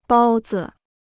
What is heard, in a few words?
"包子", Chinese